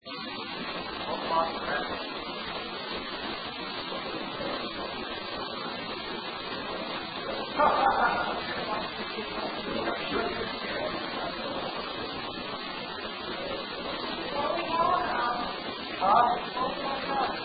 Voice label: Unexplained Multiple Male Female Voices; We're not sure he's dead